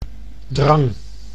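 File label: "drang", Dutch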